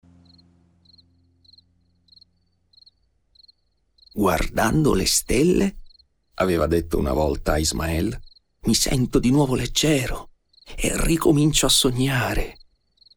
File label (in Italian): Estratto audiolibro